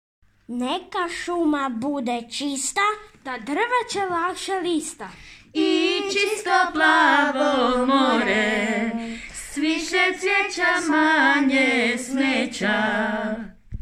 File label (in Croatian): Reklama za ocuvanje prirode